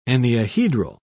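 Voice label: "enneahedral", British English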